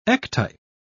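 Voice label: "ectype", British English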